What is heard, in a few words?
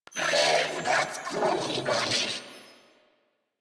Player Spotted, Female; en; Warframe; New War; Narmer Grineer